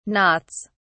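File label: "knots", English, British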